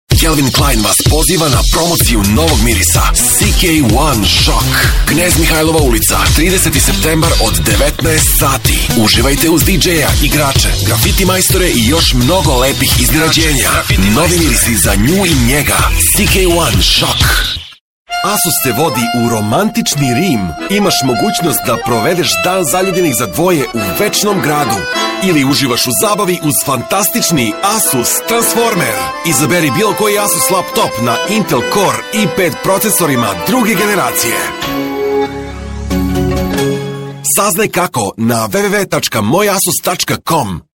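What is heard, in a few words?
serbian male commercial voice; voice demos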